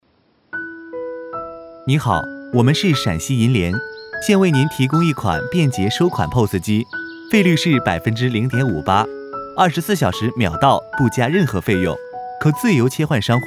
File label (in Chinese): 男 彩铃; 陕西银联